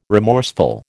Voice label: "remorseful", American

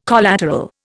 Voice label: "collateral", Indian

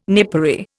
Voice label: "napery", Indian

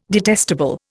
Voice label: "detestable", Indian

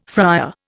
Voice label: "fryer", Indian

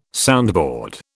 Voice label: "soundboard", British

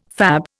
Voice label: "fab", Indian

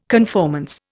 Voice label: "conformance", Indian

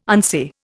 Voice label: "unsay", Indian

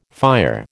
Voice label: "fire", American

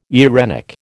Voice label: "eirenic", American

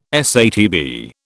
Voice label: "SATB", British

